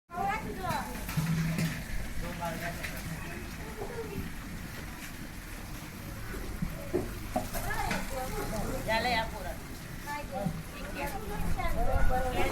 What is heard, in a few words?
village talk ambiance; Ambient; DailySounds